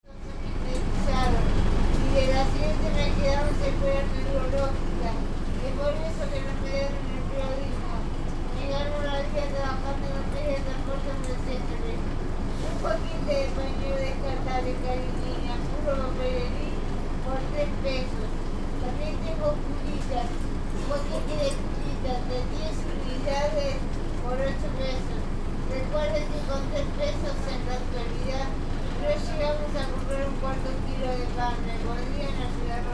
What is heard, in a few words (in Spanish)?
vendedora panuelitos y curitas linea